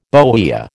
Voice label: "bohea", American